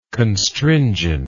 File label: "constringency", English